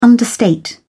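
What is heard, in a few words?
"understate", English, British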